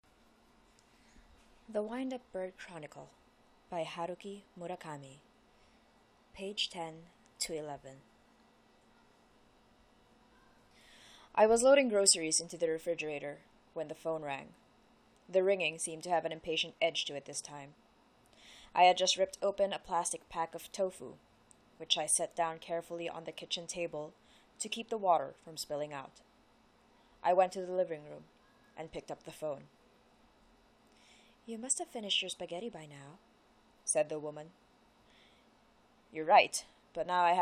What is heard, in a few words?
Reads, Without BG Music; Haruki Murakami - The Wind Up Bird Chronicle